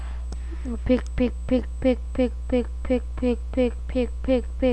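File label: "pick", spoken word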